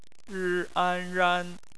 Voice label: "ran", Chinese phonetics